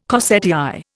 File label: "corsetière", Indian